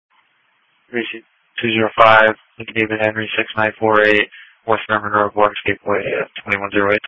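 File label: Police Patrol (Dispatch)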